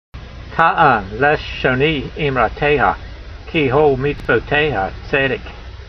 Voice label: voice, hebrew language; Psalm 119:172; ta'an leshonee eemrateyha, kee hol-meetsvoteyha tseydek